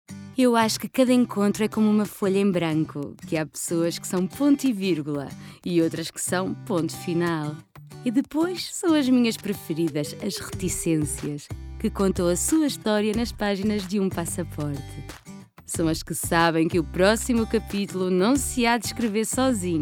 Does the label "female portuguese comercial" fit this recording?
Yes